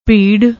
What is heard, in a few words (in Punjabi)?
"bheerr", Punjabi; ਭੀੜ